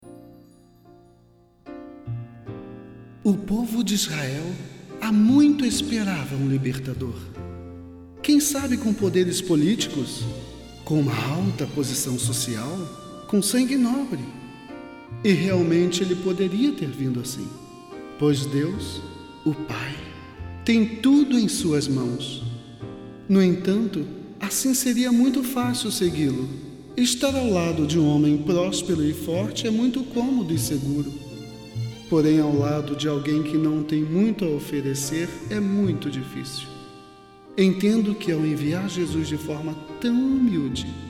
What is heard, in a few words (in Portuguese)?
Narracao